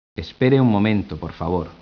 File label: SPANISH; speech